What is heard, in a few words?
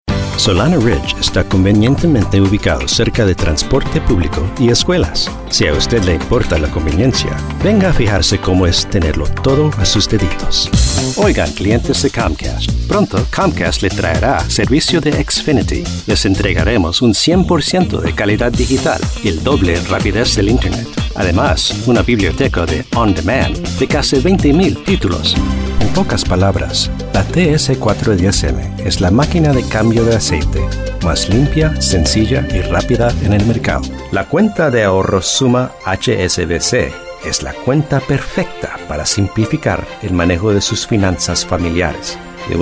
Spanish VO